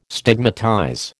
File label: "stigmatize", American